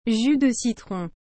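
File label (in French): "jus de citron", French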